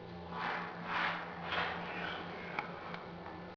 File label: "Killed You" sound; EVP, or electronic voice phenomena, are said to be the disembodied voices from spirits